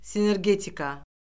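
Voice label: "sinergetika", Azerbaijani; Orfoqrafiya Lüğəti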